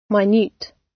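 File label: "minute" British English; Time, history; Thời gian, lịch sử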